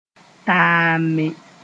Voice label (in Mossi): "taame", moore; go on with